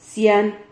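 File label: "cian", Spanish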